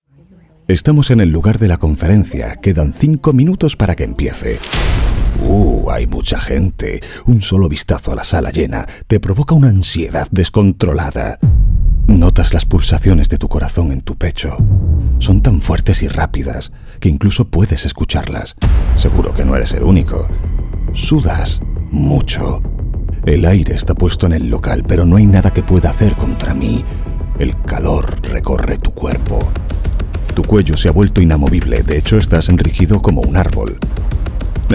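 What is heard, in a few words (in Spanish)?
corporativo España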